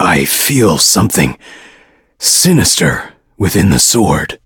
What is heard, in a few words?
level up, en; Cookie Run